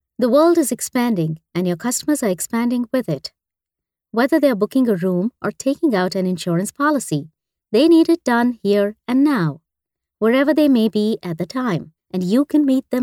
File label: english international corporate videos